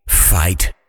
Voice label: "fight", Voiceover Pack Fighter; Kenney's Sound Pack